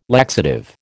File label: "laxative", American